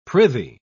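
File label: "prithee", British English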